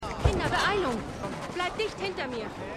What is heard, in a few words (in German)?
Koreanische Mutter; Pachinko